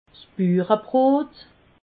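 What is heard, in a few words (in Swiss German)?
"buurebrot", Alsatian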